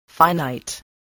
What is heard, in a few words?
"finite", spelling